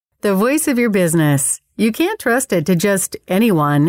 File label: Female Voice Sample